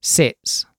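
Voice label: "sits", British English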